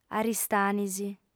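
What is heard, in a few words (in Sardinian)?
"aristanis", female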